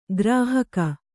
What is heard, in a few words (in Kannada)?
"ಗ್ರಾಹಕ", Kannada